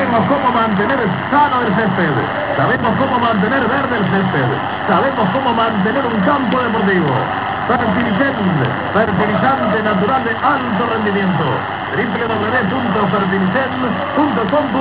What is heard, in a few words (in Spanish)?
publicidad en radio; partido de velez